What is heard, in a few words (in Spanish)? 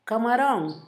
camaron, Zapoteco, Asunción Ocotlan; Animales; INALI; Haspelmath